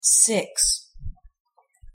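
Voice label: "six", English pronunciation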